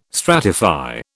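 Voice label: "stratify", British English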